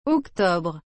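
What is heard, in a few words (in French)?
"octobre", French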